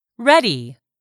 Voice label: "READY", English; 3000 words

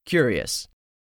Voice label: "curious", English; 3000 words

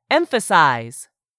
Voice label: "EMPHASIZE", English; 3000 words

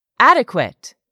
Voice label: "ADEQUATE", english; 3000 words

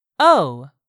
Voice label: "owe", English; 3000 words

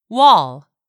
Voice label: "WALL", English; 3000 words

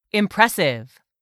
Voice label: "IMPRESSIVE", english; 3000 words

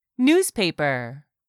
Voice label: "newspaper", English; 3000 words

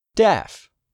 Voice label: "DEAF", english; 3000 words

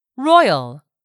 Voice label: "royal", english; 3000 words

